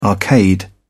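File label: "arcade", British English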